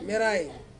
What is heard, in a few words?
"merai", Ansus; red